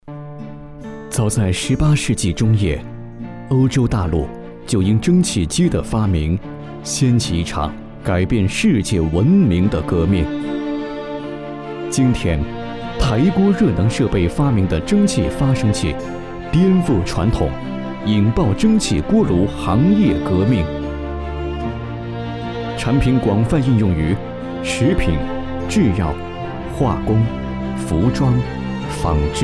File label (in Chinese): 企业宣传讲述大气